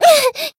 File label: Voice damaged jp